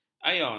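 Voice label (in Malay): "ion", Malay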